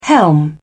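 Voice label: "helm", British English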